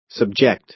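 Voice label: "subject", American English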